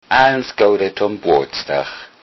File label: birthday, low saxon